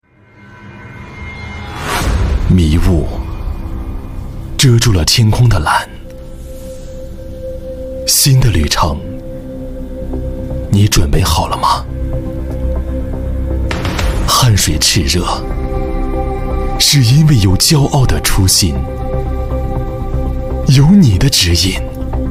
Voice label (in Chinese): 吐字圆润，声音活力满满，擅长产品推广、短视频配音，风格明快接地气, 商业配音; 资深配音达人，吐字圆润，声音活力满满，擅长产品推广、短视频配音，风格明快接地气